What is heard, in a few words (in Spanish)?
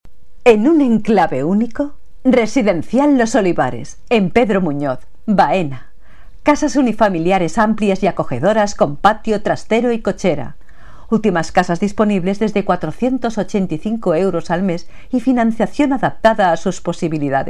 locutora castellano